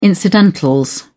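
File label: "incidentals", British English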